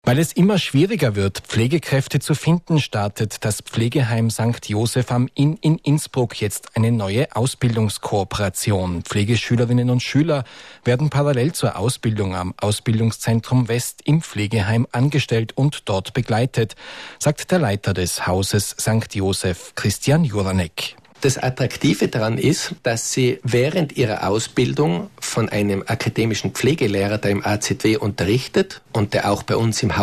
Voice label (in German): Radio Tirol Lokalnachrichten